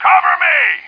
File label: male cover me; Tribes Demo; Starsiege